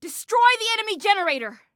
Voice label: Attack Generator, Female